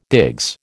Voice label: "digs", American